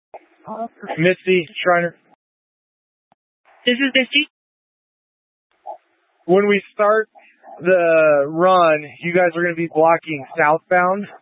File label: Tactical Incidents, scanner